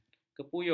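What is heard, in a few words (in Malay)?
"kepuyuh", Malay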